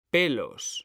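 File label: "pelos", Spanish, male; mekanta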